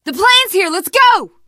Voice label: arrive plane initial, teen girl, survivor voice; source engine; left 4 dead 2

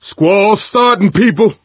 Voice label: misc directional, coach, survivor voice

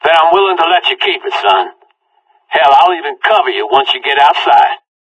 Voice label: conversation; Left 4 Dead 2; Source Engine; video games; npc; whitaker

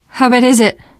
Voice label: getting revived, teen girl; source engine; left 4 dead 2; survivor

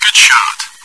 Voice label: good shot, bot; Source Engine; cstrike

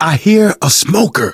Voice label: heard smoker, survivor voice; source engine; left 4 dead 2; manager

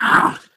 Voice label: impatient

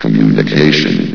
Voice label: "communication", vox; Source Engine; bshift

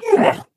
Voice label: ballbot pain

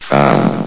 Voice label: "found", vox; Source Engine; bshift

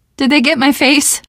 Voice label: getting revived, teen girl; survivor voice

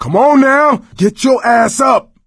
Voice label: revive friend loud; voice; source engine; left 4 dead 2

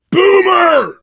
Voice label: warn boomer, player survivor voice biker; source engine; left 4 dead 2

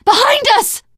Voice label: watch out behind, teen girl voice; survivor voice

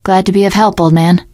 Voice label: you are welcome, teen girl

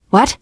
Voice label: generic responses, teen girl, voice; source engine; left 4 dead 2; survivor

